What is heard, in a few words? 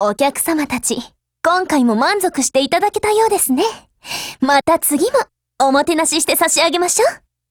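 WIN, Japanese; 88typeMod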